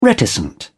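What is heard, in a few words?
"reticent", English, British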